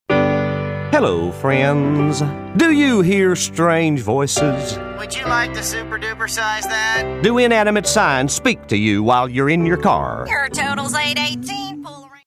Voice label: preachers